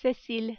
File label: "Cecile", French